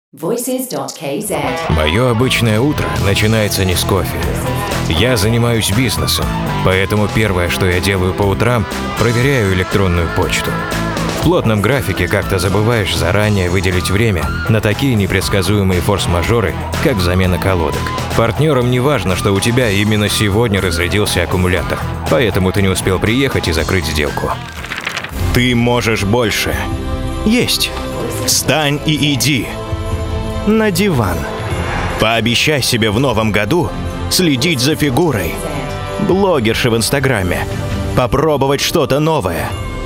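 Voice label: russian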